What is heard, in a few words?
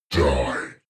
demon dark voice thick speak "die"